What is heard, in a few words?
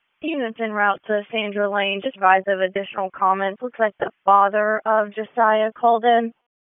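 Police District Dispatch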